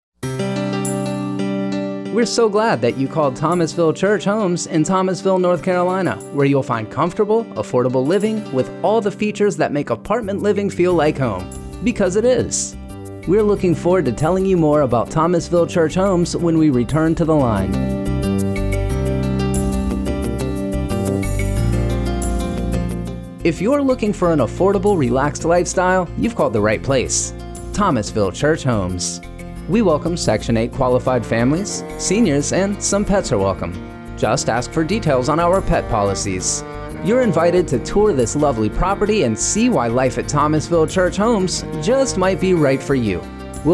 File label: Apartments Message Male